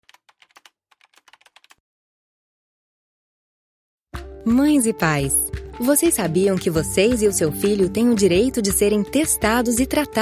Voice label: brazilian voice, elearning

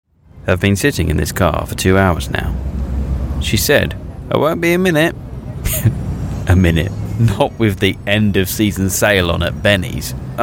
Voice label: guy next door, voiceover

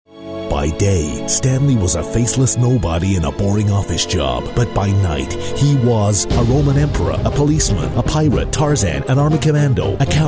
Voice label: movie trailer

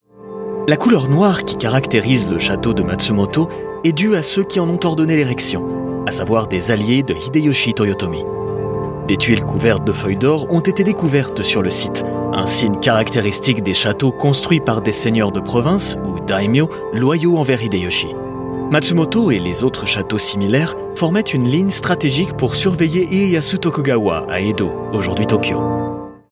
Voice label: french male audio tour fr

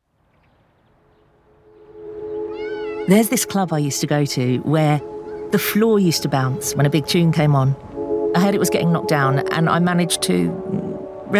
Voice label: natural

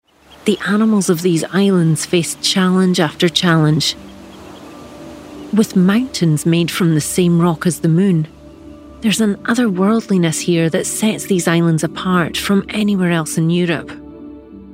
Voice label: brand portfolio, Relatable Scottish Voiceover

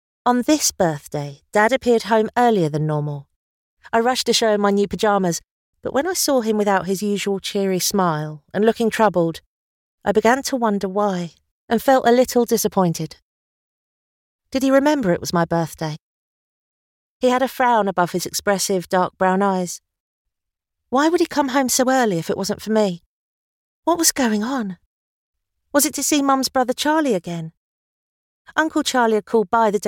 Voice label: audiobook

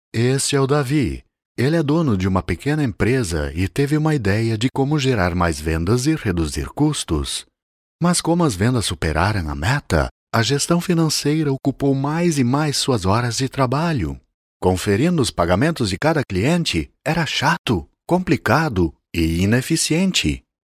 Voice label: brazilian documentary narration

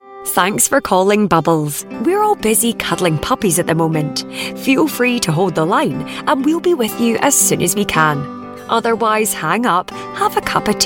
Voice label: telephone

